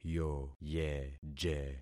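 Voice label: "yoyeje", concatenated syllables; Affixing experiment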